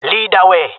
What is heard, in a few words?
following friend, jungle male; player vo; Counter Strike Model Pack; CS:GO